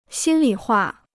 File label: "心里话", Chinese